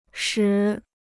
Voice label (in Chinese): "使", Chinese